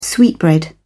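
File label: "sweetbread", British English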